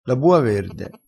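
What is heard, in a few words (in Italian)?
"bua verde", vocabolario dialettale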